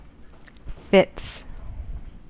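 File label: "fits", US English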